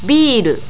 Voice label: "biru", japanese